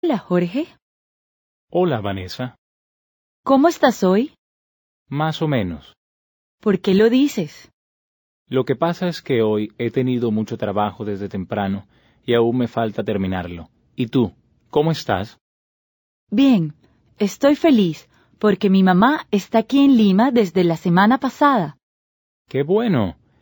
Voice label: Dialogue, Spanish